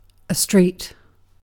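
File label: "street", British English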